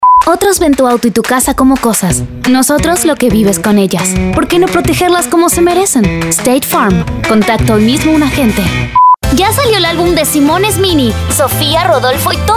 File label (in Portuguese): Neutro